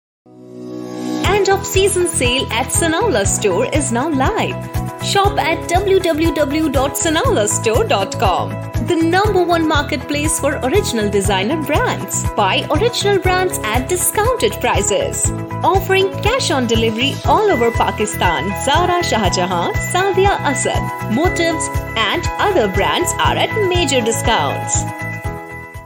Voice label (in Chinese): 印地语女 外语 小语种